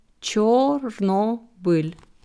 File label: Chornobyl slow, ukrainische Aussprache